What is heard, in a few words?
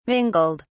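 "mingled", British English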